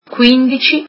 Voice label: fifteen, italian

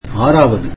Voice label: tamil sixth

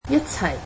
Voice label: "together", Cantonese

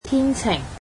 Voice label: cantonese sunny

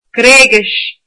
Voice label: "Greek", irish

